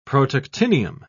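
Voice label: "protactinium", British English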